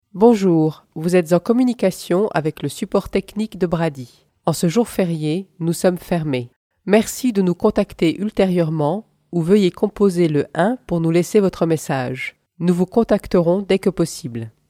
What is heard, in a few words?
French